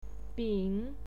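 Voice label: "bin", Mandarin Chinese, third tone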